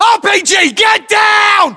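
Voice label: threat rpg, battlechatter, British; english; uk; localized english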